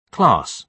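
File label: "class", British English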